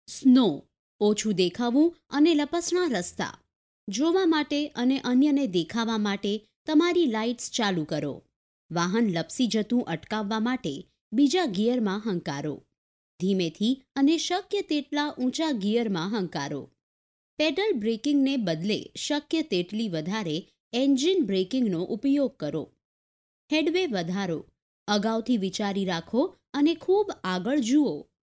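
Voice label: Gujarati female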